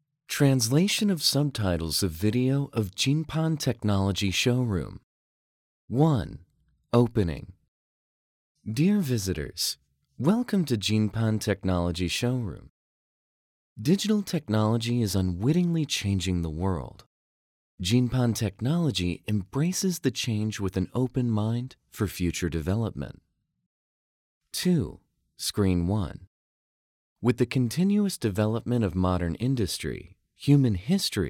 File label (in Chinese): 外籍男英 外语 美式英语 样音 自然